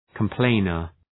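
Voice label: "complainer", English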